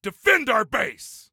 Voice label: Defend Base, Soldier Male; Soldier Male 03

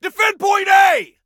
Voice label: DEFEND, Male; T2 Male 01

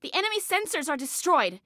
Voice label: Enemy Sensors, Female; Female 02

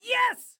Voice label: Yes, Excited Guy

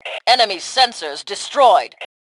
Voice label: Enemy Sensors, Female; T2 Female 02

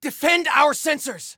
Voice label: Defend Sensors, Soldier Male; Soldier Male 01

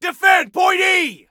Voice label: DEFEND, Soldier Male; Soldier Male 02

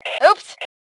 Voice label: Ooops, Female; T2 Female 03

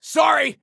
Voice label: Team Sorry, Soldier Male

